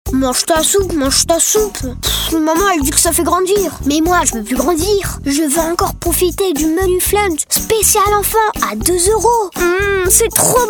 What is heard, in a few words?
french boy